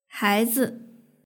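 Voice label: "haizi" Mandarin Chinese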